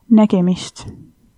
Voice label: goodbye, estonian